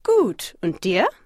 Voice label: Conversation